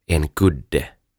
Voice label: "En Kudde" Tyyny

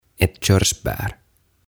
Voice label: "Ett Körsbär" "Kirsikka"

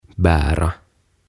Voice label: "Bära" "Kantaa"

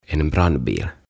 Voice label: "En brandbil" "Paloauto"

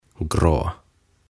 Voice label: "Grå" "Harmaa"

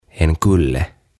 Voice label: "En Kulle", "Kukkula"

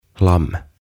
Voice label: "Lamm" "Karitsat"

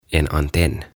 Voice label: "En antenn" "Antenni"

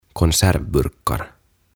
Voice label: "Konservburkar", "Säilyketölkit"

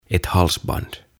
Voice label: "Ett halsband" "Kaulanauha"

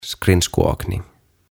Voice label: "Skridskoåkning" Luistelu

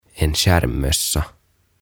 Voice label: "En Skärmmössa" Lippalakki

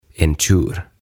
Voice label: "En Tjur" "Sonni"

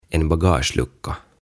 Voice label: "En bagagelucka" "Tavarasäiliö"

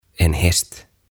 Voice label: "En Häst" "Hevonen"

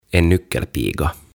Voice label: "En nyckelpiga" "Leppäkerttu"

